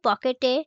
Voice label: Female